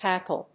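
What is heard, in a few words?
"hackle", English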